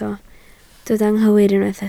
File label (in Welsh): "anghywir", Welsh